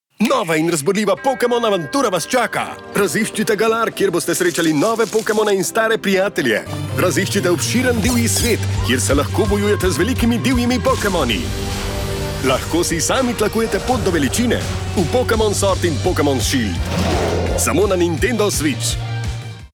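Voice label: slovenian male commercial; voice demos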